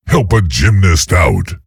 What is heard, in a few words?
low; awesomenauts